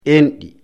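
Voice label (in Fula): "endi", Fula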